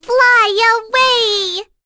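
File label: Vox Halloween Skill, voices heroes English; Luna; kings raid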